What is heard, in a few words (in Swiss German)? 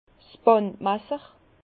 "bandmasser", Bas Rhin; vin